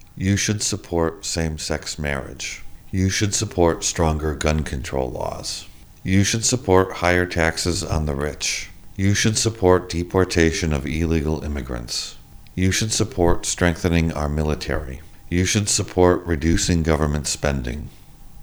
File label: male LOW, voice pitch; voice pitch 2018